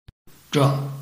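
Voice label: "zhe5", Mandarin Chinese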